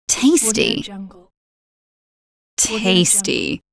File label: tasty british female voice